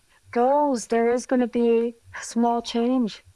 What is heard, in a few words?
Voice Acting, es, F0